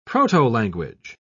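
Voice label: "protolanguage", British English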